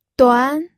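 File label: "duan", pinyin, second tone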